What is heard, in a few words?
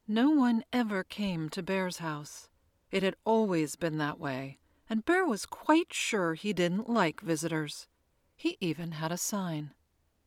Childrens Book Site Sample; Bear